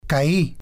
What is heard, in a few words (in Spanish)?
"cai", spanish